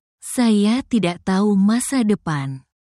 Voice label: indonesian phrases for talking about love and relationships